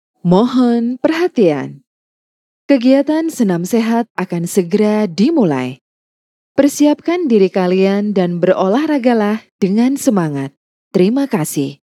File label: CASUAL INDONESIA